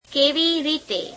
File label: gujarati how; Questions; કેવી રીતે?; [keeveī reītee?]